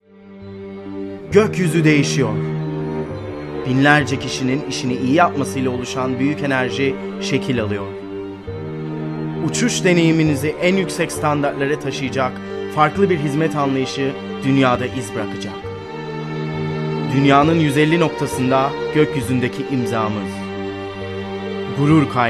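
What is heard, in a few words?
Turkish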